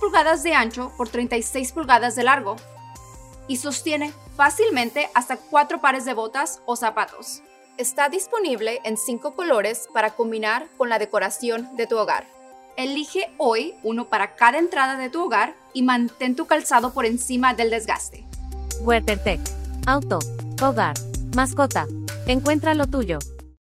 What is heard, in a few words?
Boot Tray Spanish